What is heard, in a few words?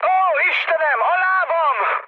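Hungarian damaged pilot mood high, speech; War Thunder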